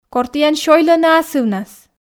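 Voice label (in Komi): кор тіян сёйлӧны асывнас, Komi, female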